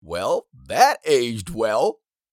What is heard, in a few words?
Well that aged well meme voice male; social media